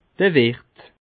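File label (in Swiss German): "wirt", Bas Rhin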